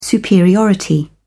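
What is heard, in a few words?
"superiority", English, British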